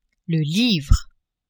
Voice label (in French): "livre", French